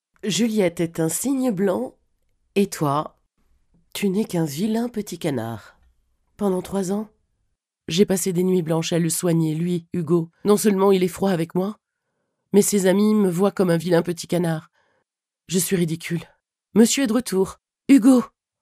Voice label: locutora francesa doblaje french dubbing